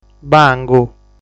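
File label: "Bangu", Sardinian word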